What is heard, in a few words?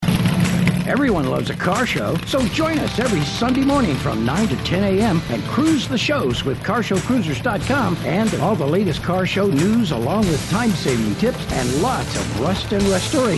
RADIO PROMO